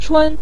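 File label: "chun" neutral tone, Mandarin Chinese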